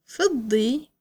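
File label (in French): "argente", French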